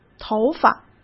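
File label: "头发", Mandarin Chinese; chinese-flashcards; hsk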